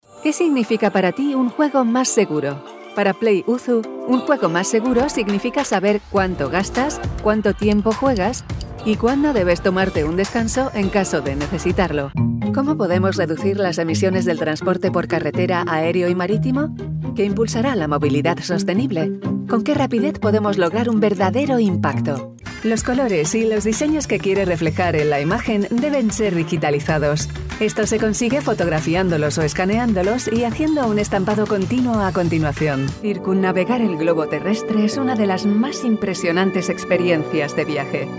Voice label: spanish female corporate

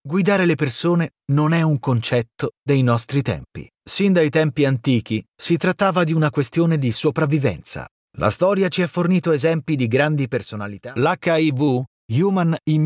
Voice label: italian voice, medical

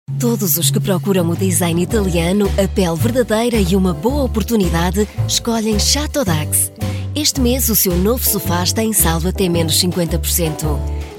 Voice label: portuguese female commercial

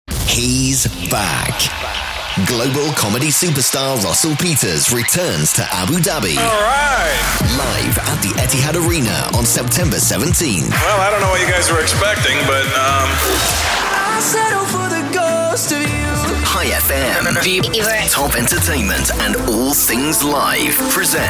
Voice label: events tours and album promos

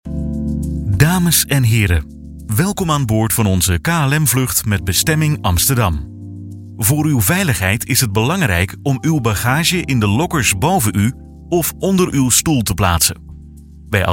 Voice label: dutch male, inflight info

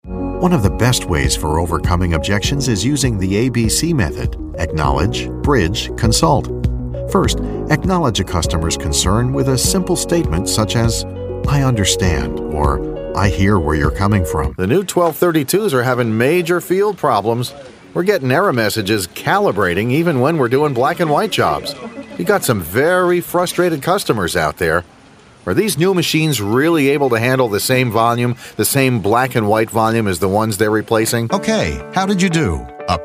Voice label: american male elearning

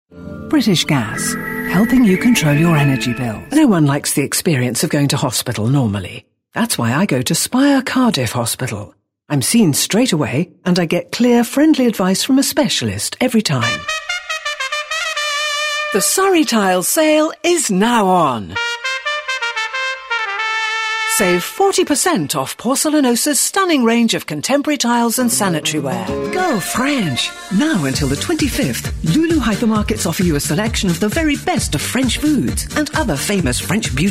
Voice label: commercial

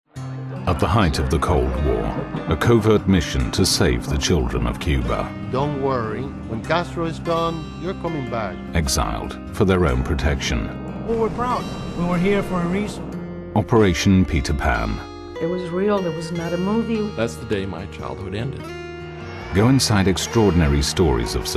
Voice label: tv promos

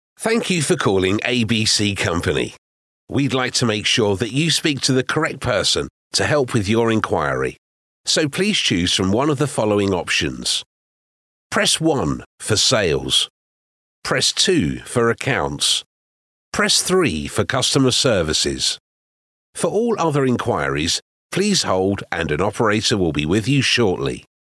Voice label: telephone

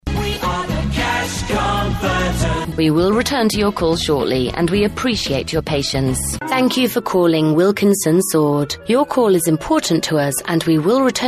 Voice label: new on hold

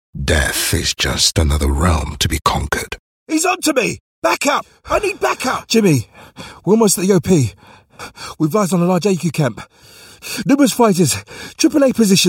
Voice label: british african gaming characters

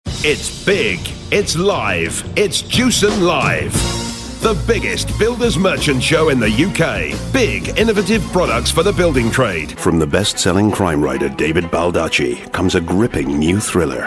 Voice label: promos

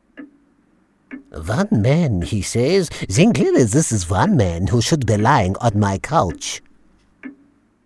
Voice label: german comic

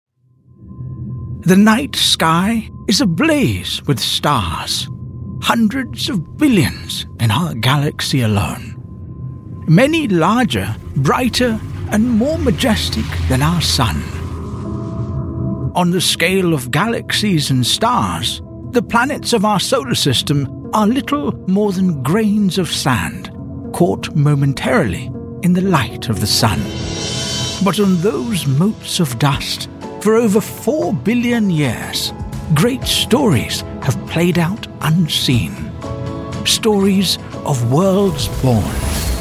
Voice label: british male voice documentary

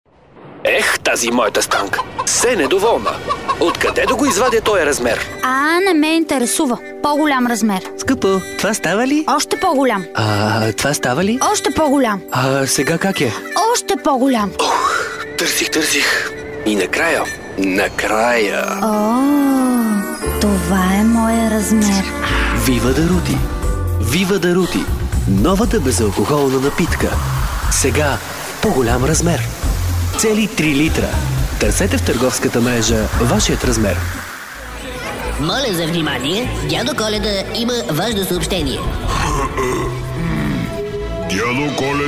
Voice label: bulgarian male, character

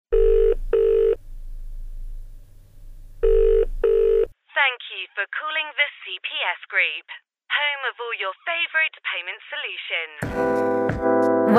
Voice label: on-hold sample

